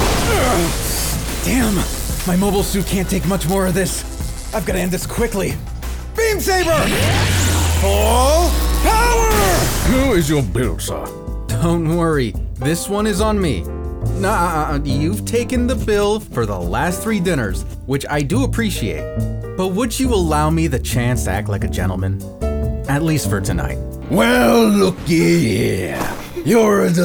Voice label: Animation